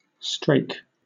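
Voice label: "strake", British English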